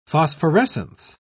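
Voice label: "phosphorescence", British English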